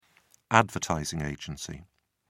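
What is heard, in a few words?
"advertising agency", British English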